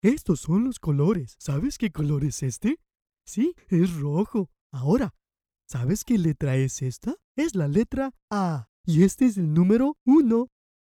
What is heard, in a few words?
Spanish Character, bilingual voice talent; los angeles california